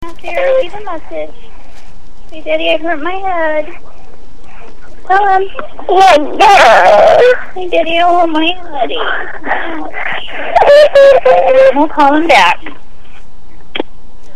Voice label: hurts head voice mail